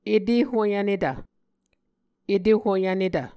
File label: "etehoyvnetv", Muscogee